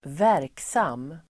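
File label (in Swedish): "verksam", Swedish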